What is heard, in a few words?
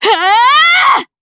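Vox Casting, Japanese; kingsraid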